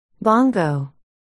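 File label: "bongo", spoken word, articulation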